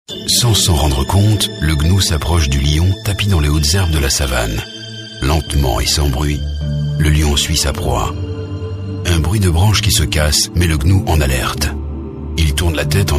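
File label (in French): Belgian French, male, narration; voice demos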